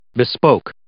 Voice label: "bespoke", English